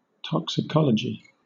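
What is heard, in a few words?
"toxicology", British English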